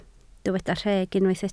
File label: "rheg", Welsh